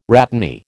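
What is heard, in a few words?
"rhatany", American English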